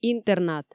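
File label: "интернат", Komi, female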